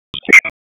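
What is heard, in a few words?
EMS Dispatch, p25; wake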